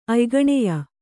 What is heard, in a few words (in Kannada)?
"ಅಯ್ಗಣೆಯ", Kannada